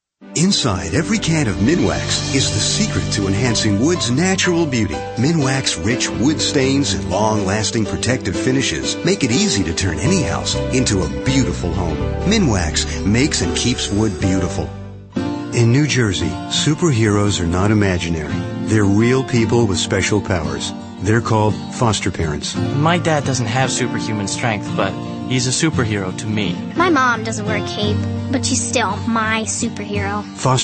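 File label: warm, radio commercial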